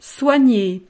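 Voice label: "soigner", French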